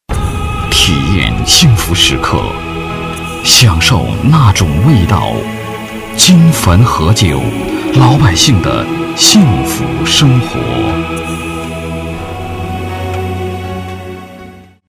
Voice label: boy wine; Chinese; guanggao; chunhoudianya